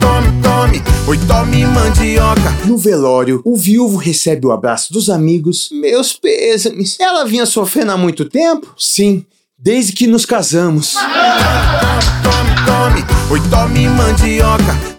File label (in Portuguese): radio spot piada; rei da mandioca; riso do radio